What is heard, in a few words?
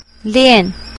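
"lian" Mandarin Chinese, fourth tone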